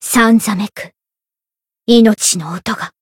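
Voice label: encounter, jp; eva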